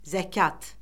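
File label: "zəkat", Azerbaijani; Orfoqrafiya Lüğəti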